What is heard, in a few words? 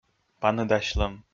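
"паныдасьлӧм", Komi, male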